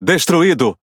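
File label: pilots fighter destroy, Brazilian Portuguese; speech; World Of Warships; PT BR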